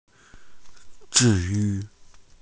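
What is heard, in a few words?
"zhi4 yu4", Mandarin Chinese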